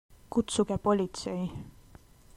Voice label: police, estonian